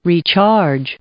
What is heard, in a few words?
"recharge", English